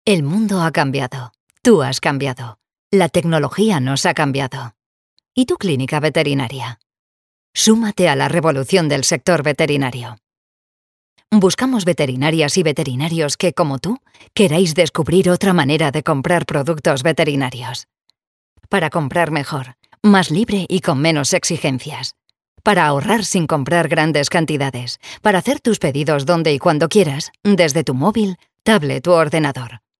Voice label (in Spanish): Femenino